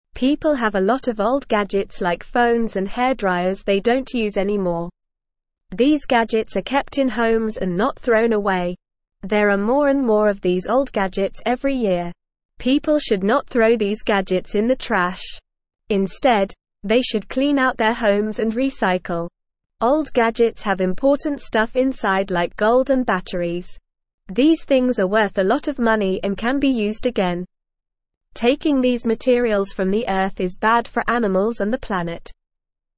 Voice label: English NORMAL Reading; Recycle Old Gadgets to Help the Earth

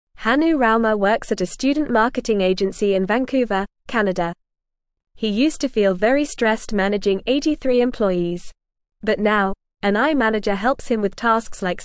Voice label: English Lower Intermediate FAST Reading; AI Helps Workers Feel Less Stressed at Work; English Newsroom

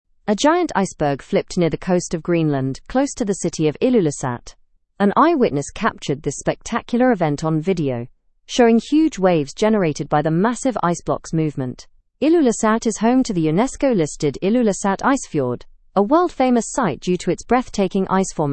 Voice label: learn english through news upper intermediate; giant iceberg s dramatic flip near greenland

